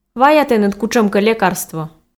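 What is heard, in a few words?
"вая тэныд кутшӧмкӧ лекарство", Komi, female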